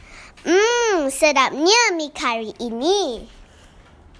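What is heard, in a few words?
Bahasa Malaysia Sample; Sedapnya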